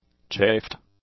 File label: "chafed", English; adjectives